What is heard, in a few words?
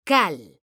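"CAL", Spanish, female; mekanta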